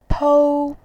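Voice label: "pou", Mandarin, tone 1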